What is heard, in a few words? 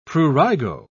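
"prurigo", British English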